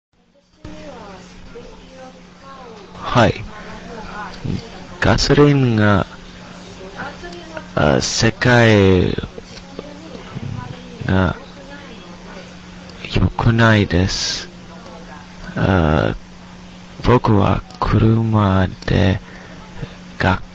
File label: japanese sample conversation